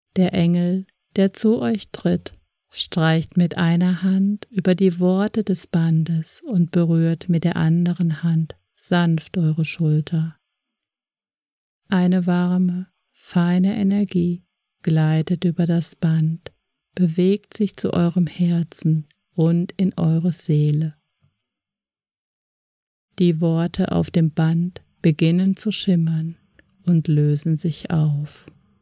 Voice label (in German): Meditation Trauer